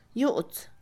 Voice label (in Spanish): esconder, Mixe, Mujer; INALI